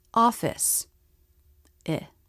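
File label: "office", English, Short i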